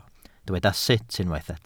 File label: "sut", Welsh